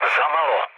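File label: Serbian bomb failure mood low, speech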